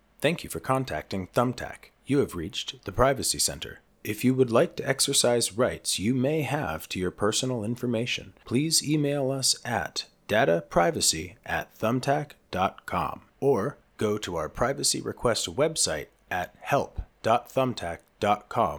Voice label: Professional announcement with authoritative tone and compliance messaging; Data Privacy Center; Commercial Thumbtack